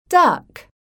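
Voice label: "DUCK", English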